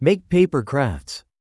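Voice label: "make paper crafts", English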